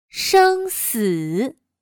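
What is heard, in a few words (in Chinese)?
"生死", Chinese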